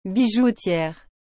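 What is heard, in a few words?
"bijoutiere", French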